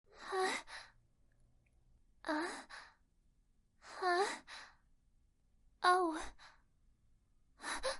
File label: cute anime girl voice